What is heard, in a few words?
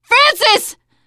grief biker, teengirl, voice; player; survivor